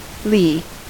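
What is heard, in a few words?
"lee", US English; pronunciations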